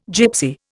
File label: "Gypsy", Indian